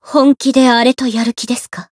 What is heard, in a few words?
Voice encount fear jp; rosetta